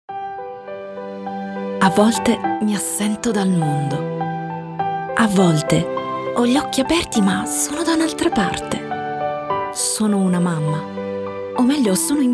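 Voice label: italian female commercial, Bright Italian Female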